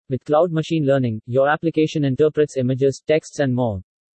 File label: English (India); Sai